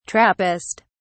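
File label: "trappist", British English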